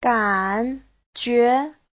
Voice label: "gan3 jue2", chinese; Giáo trình Hán ngữ